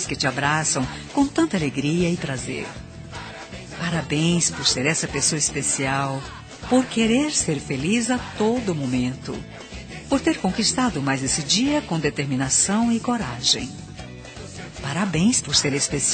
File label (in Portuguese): genro fem; Aniversário de Genro – Voz Feminina; Telemensagens para todas as ocasiões